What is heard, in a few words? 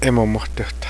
diarrhea, Cheyenne